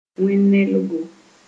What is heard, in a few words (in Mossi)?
"wẽn-nelgo", Moore